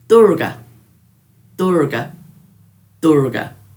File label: "dhurga", Dhurga